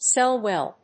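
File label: "sell well", English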